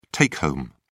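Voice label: "take-home", British English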